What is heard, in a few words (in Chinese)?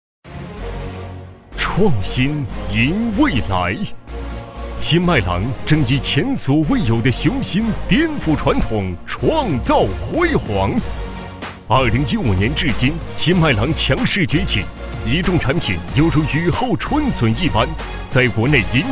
专题 颁奖 饮品经销商大会旁白 力度, 男; 今麦郎